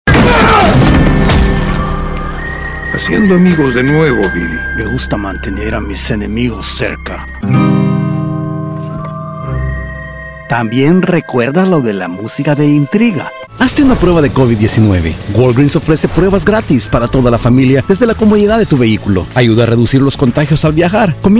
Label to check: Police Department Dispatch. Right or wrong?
Wrong